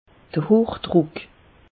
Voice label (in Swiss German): "hoch druck", alsace; meteo